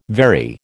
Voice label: "vary", American